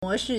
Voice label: "mo2shi4", Chinese

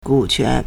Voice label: "gu3quan2", Chinese

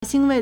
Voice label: "xin1wei4", Chinese

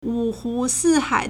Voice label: "wu3hu2si4hai3", Chinese

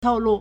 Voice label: "tou4lu4", Chinese

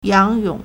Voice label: "yang3yong3", Chinese

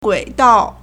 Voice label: "gui3dao4", Chinese

